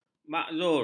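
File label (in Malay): "makzul", Malay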